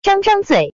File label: open mouth; RX-FaceSDK; baidu